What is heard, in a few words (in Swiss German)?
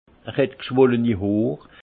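"hoor", alsace Haut-Rhin; vin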